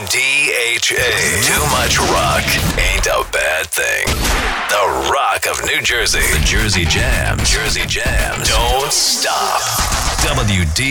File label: Radio